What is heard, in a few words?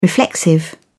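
"reflexive", English, uk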